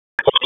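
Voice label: Public Safety Tactical, p25; wake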